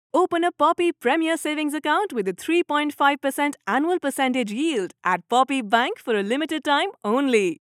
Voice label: Commercial Eng; Poppy Bank

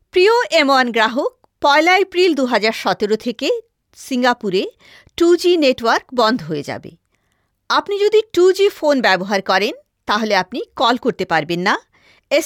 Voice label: ivr bangladesh bangla

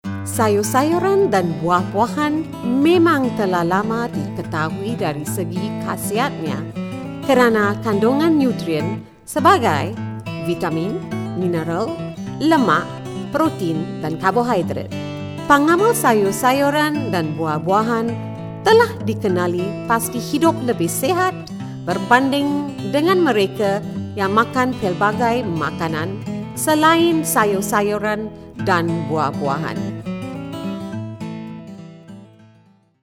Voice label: Malay VO

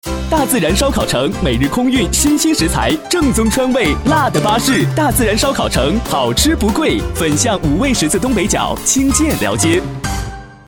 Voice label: male commercial restaurant

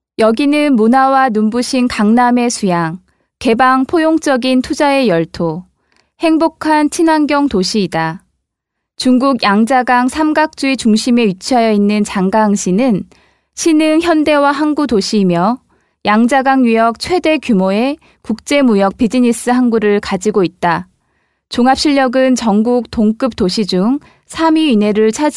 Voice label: FEMALE KOREAN

